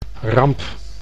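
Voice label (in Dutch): "ramp", Dutch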